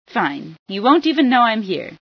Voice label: fine, female

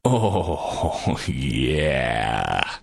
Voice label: cheer

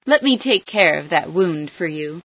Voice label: heal, female

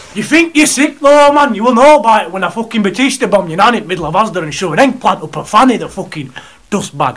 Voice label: british

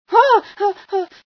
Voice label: female sci fear

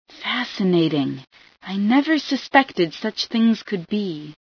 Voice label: never seen, female

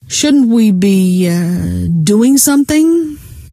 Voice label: doing something, scientist female

